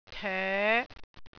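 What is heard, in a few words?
"toe5", Cantonese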